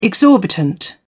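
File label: "exorbitant", British English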